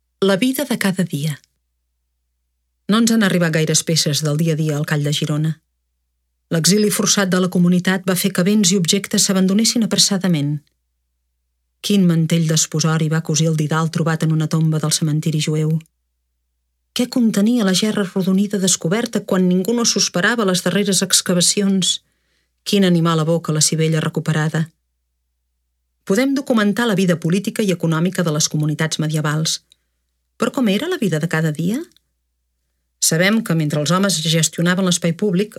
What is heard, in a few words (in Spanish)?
audioguia; mjueus cat